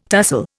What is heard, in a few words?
"tussle", Indian